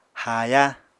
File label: "haaya", apatani